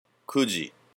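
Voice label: "kuji", Japanese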